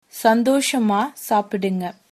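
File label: bon appetit, female, tamil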